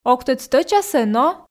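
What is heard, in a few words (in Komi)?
ог тӧд стӧчасӧ но, Komi, female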